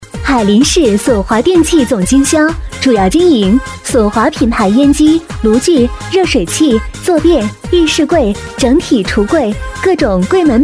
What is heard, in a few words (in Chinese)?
电器总经销电台 家电促销